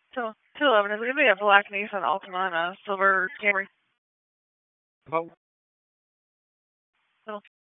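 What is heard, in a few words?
Police Northeast District Disp, radio; Raleigh; p25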